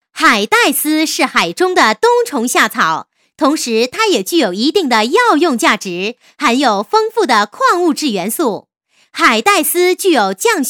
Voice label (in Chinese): 女 促销 海带丝, 国语女声配音